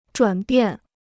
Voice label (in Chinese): "转变", Chinese